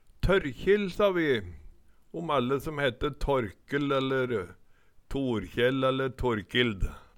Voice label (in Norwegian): "Tørkjill", Numedalsmål; Særnamn (personnamn)